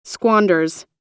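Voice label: "squanders", US English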